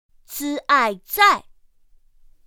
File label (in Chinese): "z ài zài", pinyin; pinyin2; pinyinji